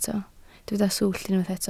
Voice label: "swllt", Welsh

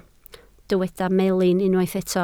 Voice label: "melin", Welsh

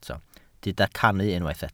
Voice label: "cannu", Welsh

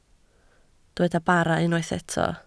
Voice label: "bara", Welsh

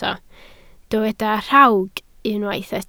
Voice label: "rhawg", Welsh

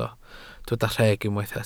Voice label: "rheg", Welsh

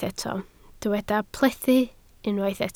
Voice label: "plethu", Welsh

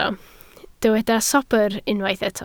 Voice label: "sobr", Welsh

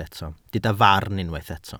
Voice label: "farn", welsh

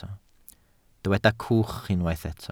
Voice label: "cwch", Welsh

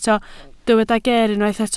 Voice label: "geer", Welsh

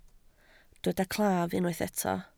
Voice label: "claf", Welsh

